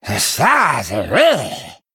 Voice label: Male Murloc Start; zh